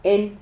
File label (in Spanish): "hen", Silabas